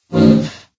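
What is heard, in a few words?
woop, female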